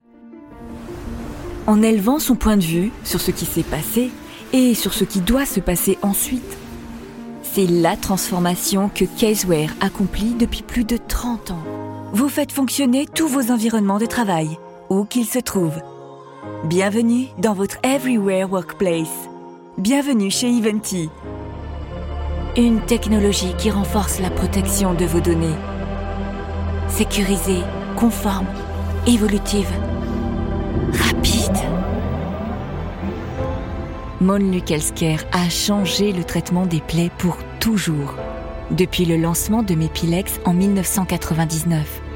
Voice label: french voice over CORPORATE